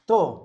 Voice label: "vergueza", Zapoteco, Magdalena Ocotlan; Enfermedades; INALI; Haspelmath

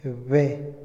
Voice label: ladrón, Otomí, hombre; INALI

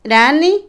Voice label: Otomí, mujer; puente; INALI

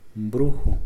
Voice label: "brujo", Mixe, hombre; Religión

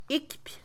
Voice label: tomar, Mixe, Mujer; Posesión; INALI

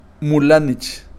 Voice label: meterse en el agua, Kakchikel

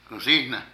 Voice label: Cocina, Zapoteco de Petapa; Casa; Vocabulary

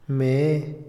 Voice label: duro, Otomí, hombre; Percepción; INALI

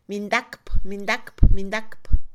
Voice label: agacharse, Mixe, Mujer; Movimiento; INALI

